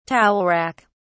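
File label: "towel rack", British English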